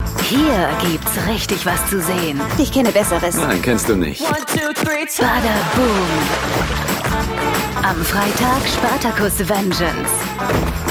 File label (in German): Sprecherin, Entertainment Trailer; Bada Boom; Pro7